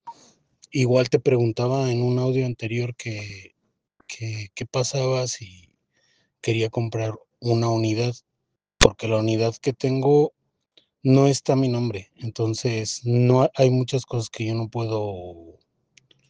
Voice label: whatsapp ptt